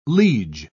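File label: "liege", British English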